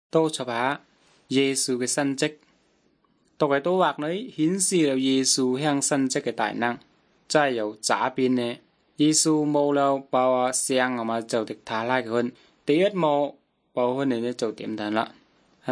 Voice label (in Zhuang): good news zhuang nanning fushu